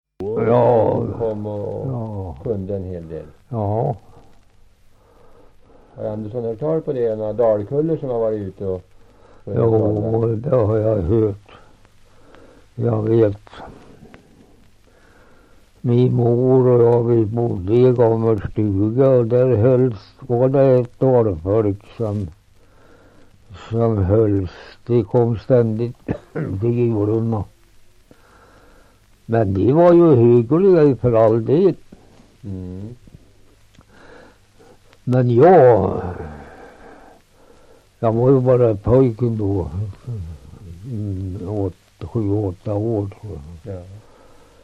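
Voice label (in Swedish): Svärta Södermanland, dialekter; Folkminnen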